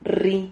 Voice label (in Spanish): "rri", silabas